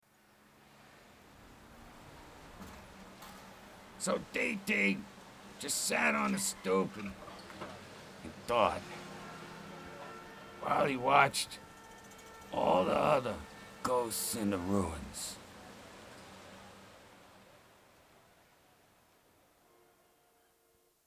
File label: Narration